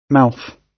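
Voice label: "mouth", British English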